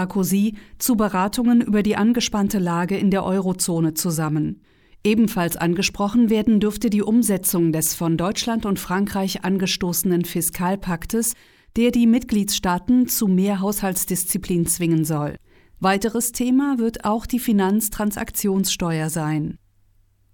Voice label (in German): nachrichten oeffentlich rechtlich